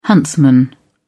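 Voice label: "huntsman", English, British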